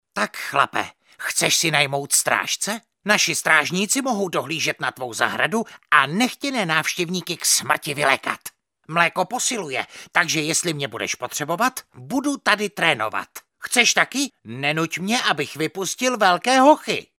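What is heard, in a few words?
Male Czech drama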